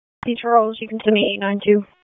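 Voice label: Police Southeast District Dispatch, p25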